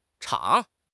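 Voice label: "chang2", Mandarin Chinese; HSK; HSK4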